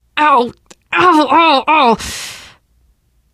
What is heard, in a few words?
going to die light, teen girl; survivor voice